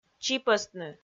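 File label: "чипӧстны", Komi, female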